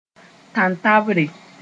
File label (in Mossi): "tantabre", moore